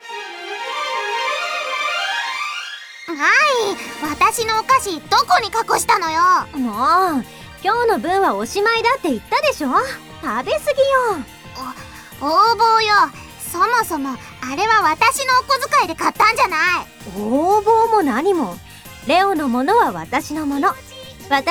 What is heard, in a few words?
Voice Drama